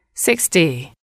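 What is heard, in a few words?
"sixty", English, female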